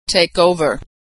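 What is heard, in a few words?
"take over" verb, American English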